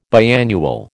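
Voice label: "biannual", American English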